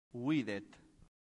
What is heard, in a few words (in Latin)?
"vident", latin; santillana